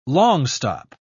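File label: "longstop", British English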